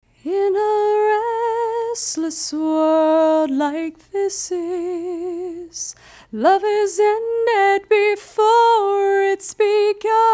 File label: sibilance